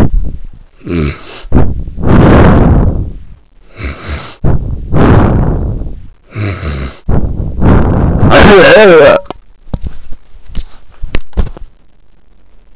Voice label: News Serious